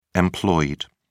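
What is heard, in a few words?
"employed", British English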